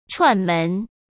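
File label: "串门", Mandarin Chinese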